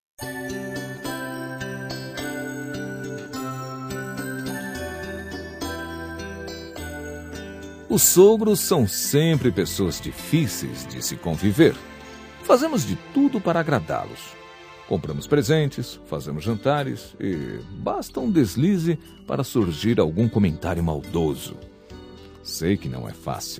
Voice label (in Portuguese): Aniv. de Nora, M